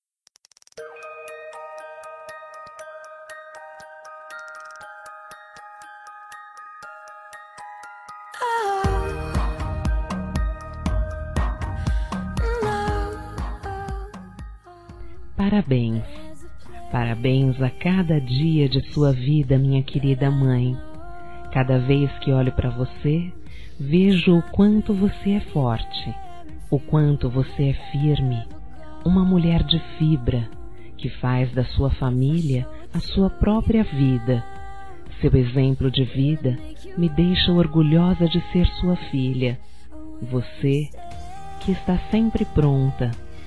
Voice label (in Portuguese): Dia das Mães Geral F, Telemensagens Fem; Telemensagens 2017